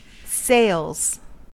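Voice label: "sails", American English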